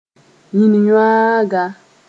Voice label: "yĩnyõaaga", Mooré

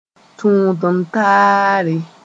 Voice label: "tũud-n-taare", moore

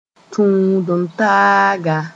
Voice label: "tũud-n-taaga", moore

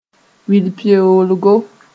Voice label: "wilpeoolgo", Moore

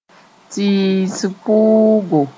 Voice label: "tɩɩs pʋʋgo", moore

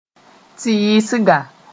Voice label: "tɩɩsga", moore

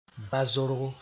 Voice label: "bazorgo", Mooré; long sorghum sp

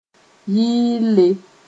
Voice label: "yɩɩlle", Mooré

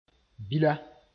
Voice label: "bila", moore

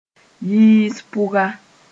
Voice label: "yiis pʋga", Mooré

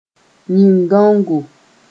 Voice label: "yĩn-gãongo", Mooré

